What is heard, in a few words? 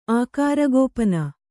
"ಆಕಾರಗೋಪನ", Kannada; ākāragōpana; noun; = ಆಕಾರಗುಪ್ತಿ - 1.